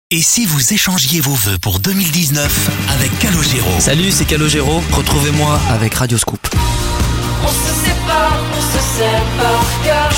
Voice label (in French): Promo concert